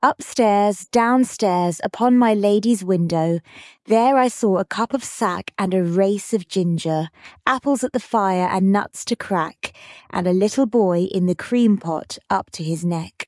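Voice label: Upstairs Downstairs female british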